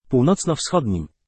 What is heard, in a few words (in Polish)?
vhf ne, pl google male; sr0wx